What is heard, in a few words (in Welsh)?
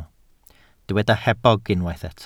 "hebog" Welsh